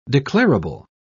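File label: "declarable", British English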